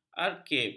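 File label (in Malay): "arkib", Malay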